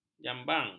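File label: "jambang", Malay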